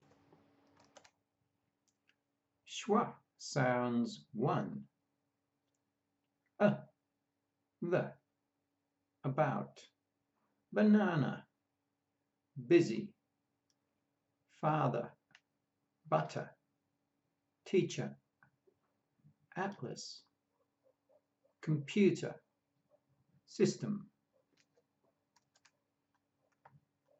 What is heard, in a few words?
Schwa sounds, pronunciation of australian english